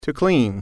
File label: "clean", English